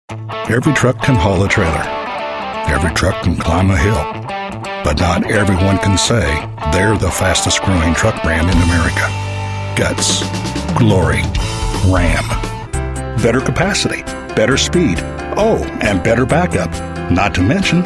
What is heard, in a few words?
Commercial